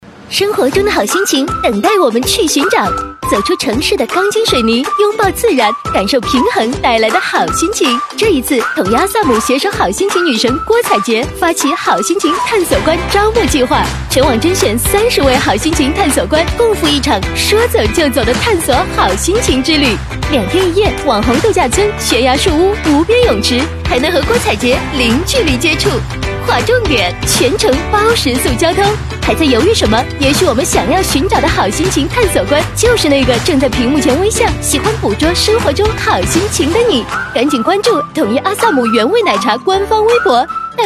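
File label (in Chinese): 女 广告 活力女生, Mandarin; 统一阿萨姆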